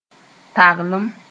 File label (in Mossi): "taglem", moore